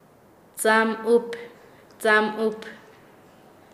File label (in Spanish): "cerca de alambre", Nasa Yuwe; paez